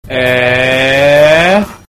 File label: eeeeeeeeeeeeh ehh